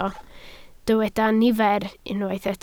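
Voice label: "nifer" Welsh, spoken word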